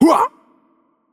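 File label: Greek attack heavy voice; bloom minigame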